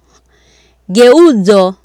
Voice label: "geuzo", Swahili